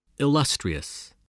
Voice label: "illustrious", voice spelling